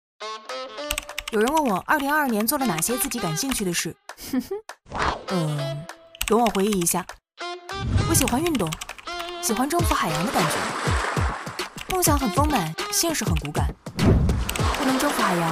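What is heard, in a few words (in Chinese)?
女国大音-素人-欧拉汽车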